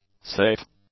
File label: "safe", English; adjectives